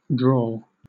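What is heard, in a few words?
"drawl", British English; pronunciations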